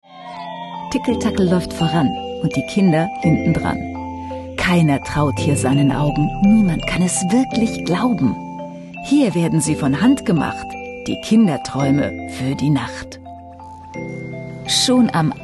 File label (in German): Hoerbuch, Audiobook (Hörbuch), German; TickelTackel